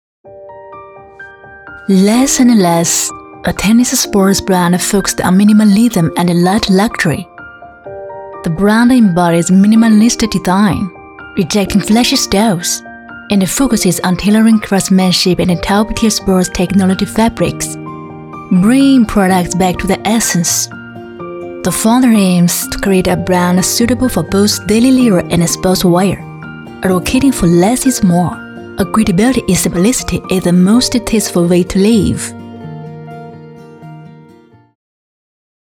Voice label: 女 英文广告

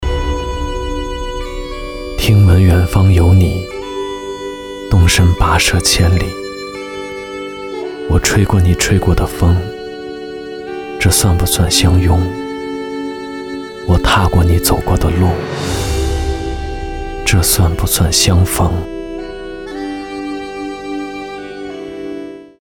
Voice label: 男 走心自述

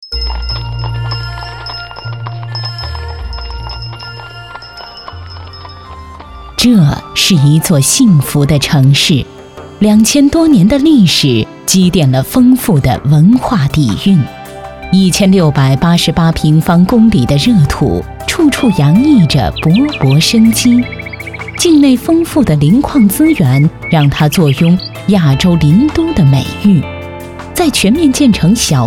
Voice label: 女76 专题; 广济天下惠仓生

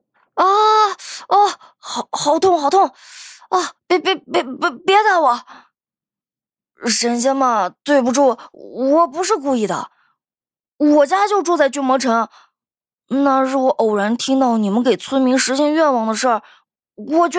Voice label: 女 角色 少年音

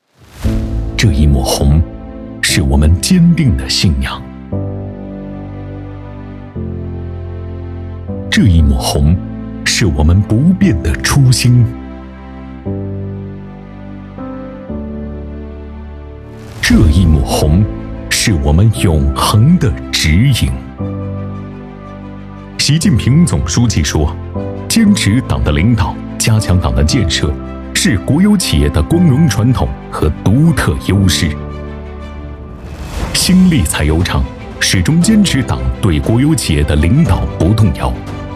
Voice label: 男, 宣传片 党建宣传; 新立七一

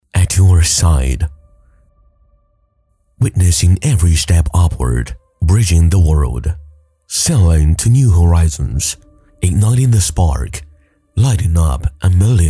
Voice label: 男 英文专题 低沉磁性

